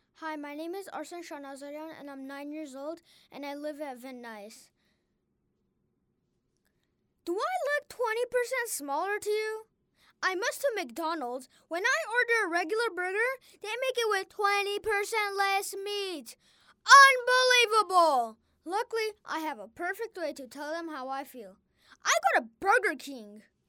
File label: Youth